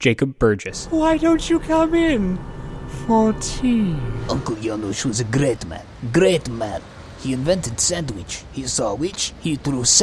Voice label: animation